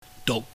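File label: "duk", Cantonese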